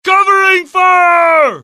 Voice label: suppress, dialogue generic US; mohaa

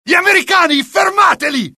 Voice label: alert, dialogue IT; mohaa; attack

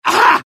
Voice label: death, UK; mohaa; dialogue

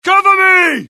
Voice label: reload, US; dialogue; mohaa

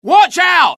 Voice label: alert, dialogue UK; mohaa

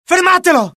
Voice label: alert, dialogue IT; mohaa; attack

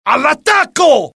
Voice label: alert, dialogue IT; mohaa; attack

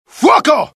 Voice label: alert, dialogue IT; mohaa; attack

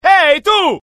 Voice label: anger, dialogue IT; mohaa; attack

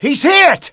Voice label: panic; mohaa; dialogue

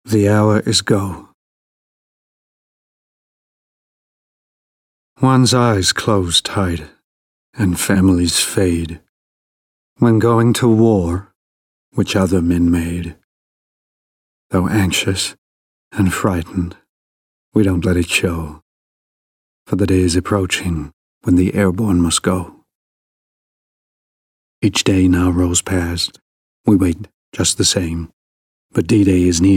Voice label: poem; mohaa

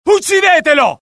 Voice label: alert, dialogue IT; mohaa; attack

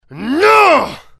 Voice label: anger, dialogue IT; mohaa; attack

